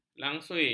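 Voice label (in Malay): "langsuir", Malay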